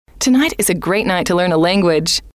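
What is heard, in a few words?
tonight Is A Great Night To Learn A Language, female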